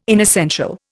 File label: "inessential", Indian